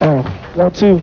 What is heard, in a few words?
"1 2 uh", voice; numbers and letters